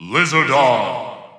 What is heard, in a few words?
Japanese Announcer; Charizard; SSB4